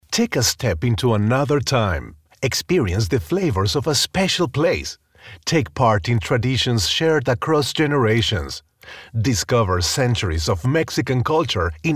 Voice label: Spanish Accent